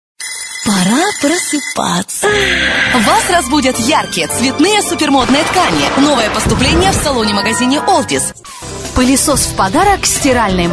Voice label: voice female